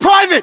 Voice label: rank private, us

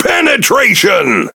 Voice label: Pirate Speech Hit Confirmation; World Of Warships

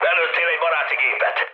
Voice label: player killed ally, mood low, speech hun; War Thunder

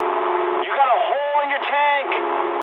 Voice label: eng tank damaged mood med, speech eng

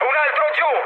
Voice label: player killed enemy mood med, speech it

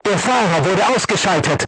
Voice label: voice message driver contused, crew tanks german

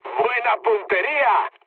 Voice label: bomb success mood med; War Thunder

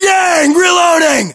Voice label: inform reloading, US

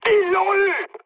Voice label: aircraft destroyed mood high, speech fr; War Thunder

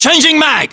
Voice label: inform reloading generic, uk; localized english

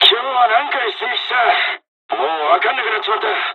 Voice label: dialog, dialogs japanese; War Thunder

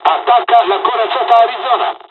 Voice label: first wave ships, dialogs italian; War Thunder

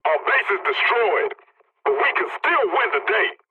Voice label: pilot base destroyed ally, english; War Thunder

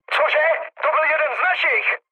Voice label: player killed ally, speech cze, mood med; War Thunder

